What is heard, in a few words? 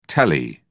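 "tele", British English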